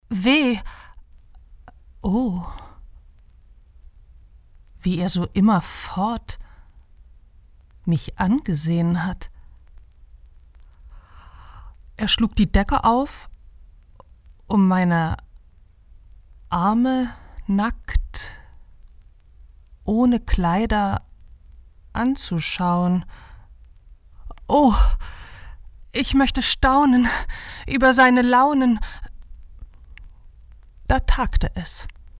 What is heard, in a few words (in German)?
doku pferde